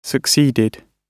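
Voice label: "succeeded", British English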